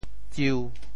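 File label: "tsiu1", Teochew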